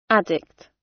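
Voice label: "addict" British; Technology; Công nghệ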